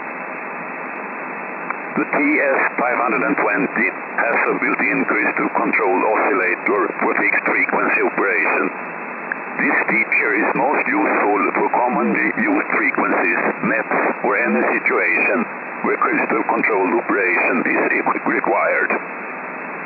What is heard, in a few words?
compressed, ssb; linuxdsp